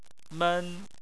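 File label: "men", Chinese, first tone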